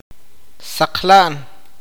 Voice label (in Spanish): "oler", awakateko